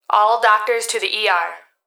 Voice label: Emergency Human Female Voice Hospital Calls All Doctors To The ER; emergency effects